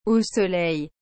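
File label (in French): "au soleil", French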